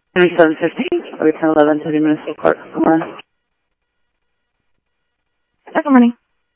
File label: Tempe Police, scanner audio; SimulcastF-Tempe